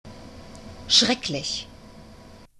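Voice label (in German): "schrecklich", German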